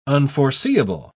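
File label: "unforeseeable", English, uk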